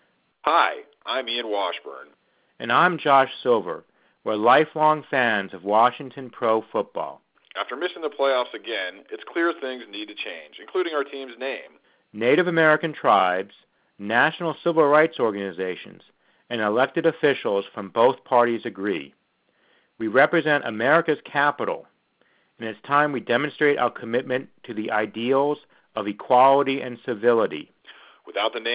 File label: robocall recording